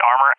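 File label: "armor", English, USA; DCS; Allied Flight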